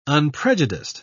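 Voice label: "unprejudiced", British English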